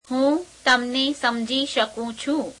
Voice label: gujarati I understand you; affirmative form